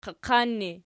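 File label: "qaqan" ergative, Archi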